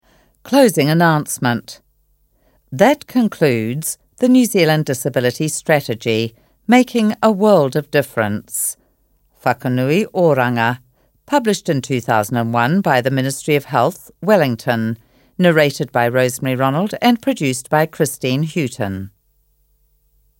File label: Closing announcement